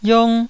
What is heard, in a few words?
"yiong1", Taiwanese